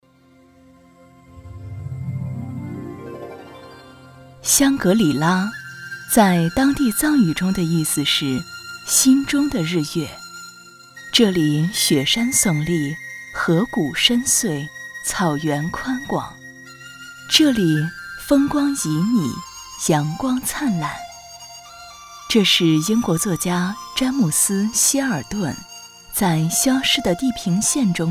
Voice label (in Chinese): 女 专题; 香格里拉